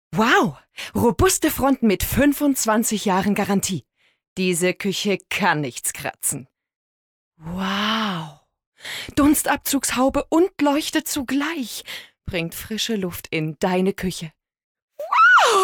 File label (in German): sprachprobe werbung